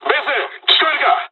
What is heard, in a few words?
dialogs japanese; War Thunder